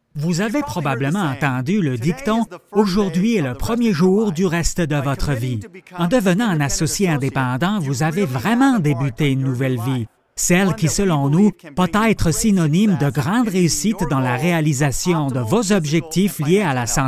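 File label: Français canadien Voice over, Canadian French; Isagenix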